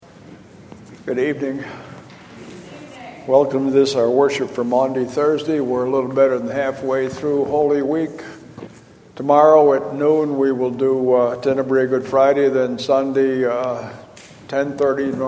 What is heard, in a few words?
Welcome and Announcements; Maundy Thursday